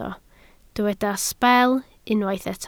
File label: "sbel", Welsh